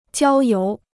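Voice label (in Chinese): "郊游" Chinese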